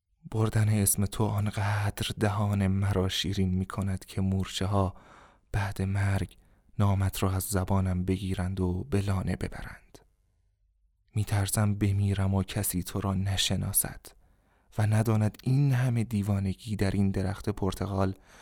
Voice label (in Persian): نمونه صدای دوم شعر